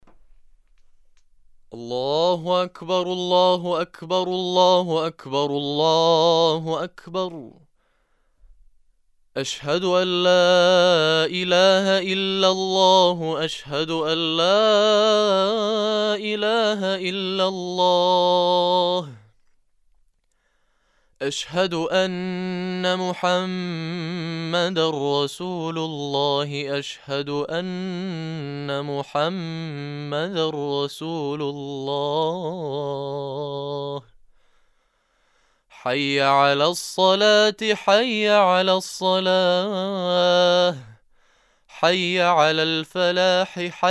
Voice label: Iqamah